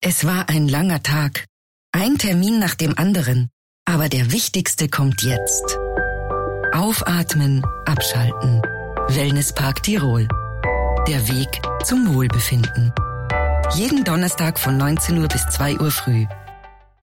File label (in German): Demospot Wellnesspark